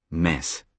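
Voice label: "mess", British English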